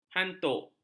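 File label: "hantuk", Malay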